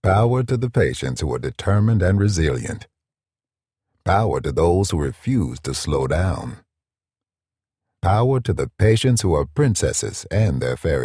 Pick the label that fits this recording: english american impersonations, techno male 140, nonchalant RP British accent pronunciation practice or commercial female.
english american impersonations